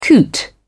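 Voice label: "coot", British English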